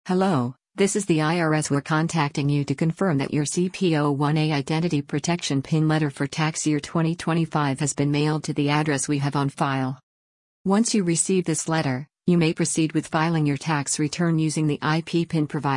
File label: voicemail